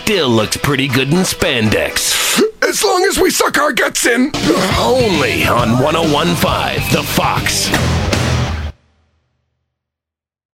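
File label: voice over classic rock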